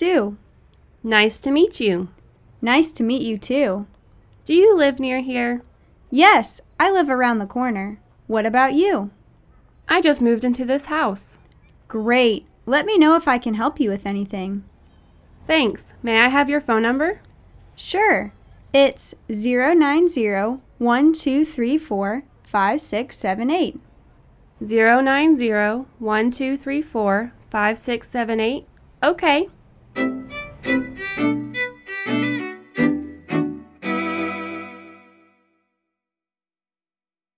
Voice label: Conversation, English